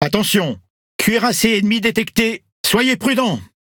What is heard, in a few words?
ENEMY SHIPS I SEE, FR; PVE